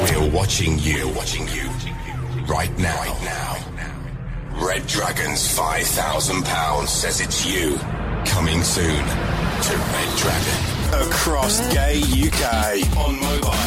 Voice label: radio imaging